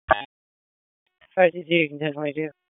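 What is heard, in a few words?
Police District Dispatch, p25; Raleigh; Southeast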